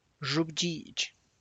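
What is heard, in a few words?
tlacochahuaya, Zapotec; fruit of mezquite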